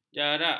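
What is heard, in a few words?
"jarak", Malay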